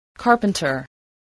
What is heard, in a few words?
"carpenter", spelling